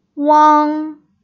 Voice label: "uang", Pinyin final

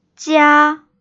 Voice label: "jia1", Pinyin, Chinese

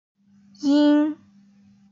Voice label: "yin1", Pinyin, Chinese

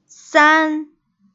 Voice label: "san1", Pinyin, Chinese

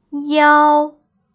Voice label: "iao", Pinyin final

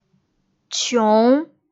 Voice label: "qiong2", Pinyin, Chinese

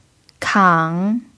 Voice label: "kang3", pinyin

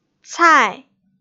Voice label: "cai4", Pinyin, Chinese

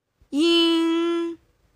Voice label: "ing", Pinyin final

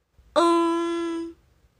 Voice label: "eng", Pinyin final

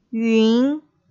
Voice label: "yun2", Pinyin, Chinese

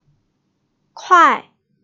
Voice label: "kuai4", Pinyin, Chinese

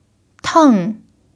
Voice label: "teng4", pinyin

